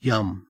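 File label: "yum", Australian English; Pacman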